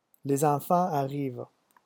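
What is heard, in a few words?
"les enfants arrivent", French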